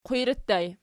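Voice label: qwiri ergative plural, Archi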